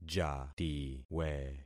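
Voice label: "jatiwe", concatenated syllables; Affixing experiment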